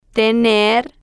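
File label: "tener", slow, spanish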